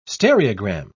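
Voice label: "stereogram", British English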